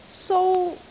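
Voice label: "sou1", Cantonese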